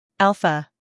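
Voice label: "Alpha", American English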